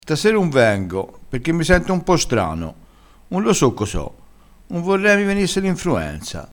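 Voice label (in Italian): "sentissi strano", dialettale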